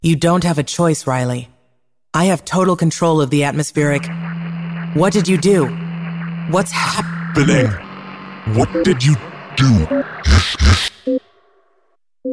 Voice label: female ai artificial intelligence calm serious monotone robotic informative; slow